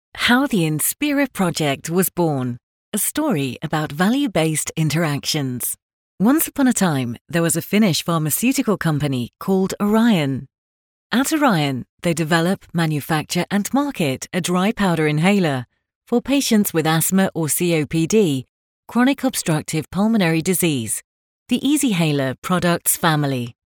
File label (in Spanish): Femenino